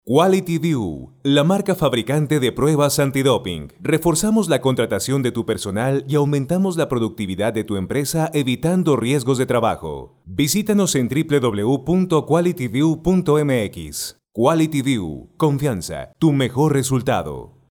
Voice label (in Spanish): LOC VIDEO INSTITUCIONAL Y CORPORATIVO; CLIP QVIEW